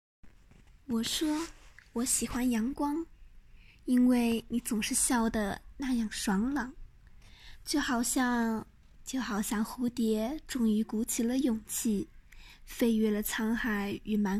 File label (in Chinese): 诗歌朗诵